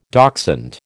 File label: "dachshund", American